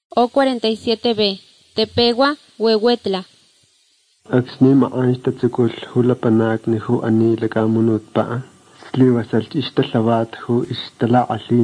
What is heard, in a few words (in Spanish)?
spanish mexico